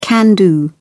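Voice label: "can-do", British English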